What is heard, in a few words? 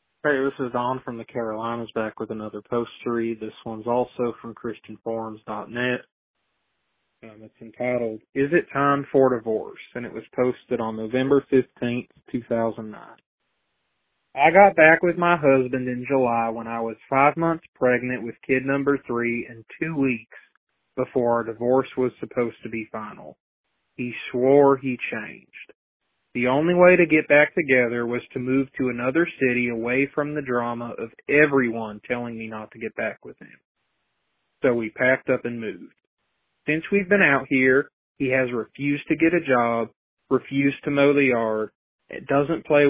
Voicemail